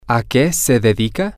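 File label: what do you do he she does, Spanish, male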